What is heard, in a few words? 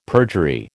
"perjury", American